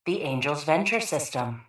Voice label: PA System; Angel's Venture